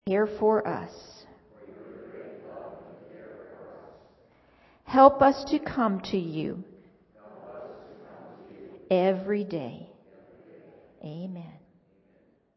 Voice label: kids message